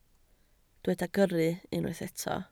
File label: "gyrru", Welsh